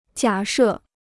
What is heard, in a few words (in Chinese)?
"假设", Chinese